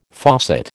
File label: "faucet", American